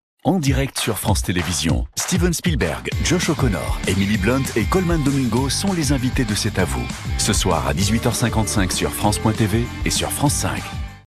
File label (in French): bande annonce; C a vous France 5 2026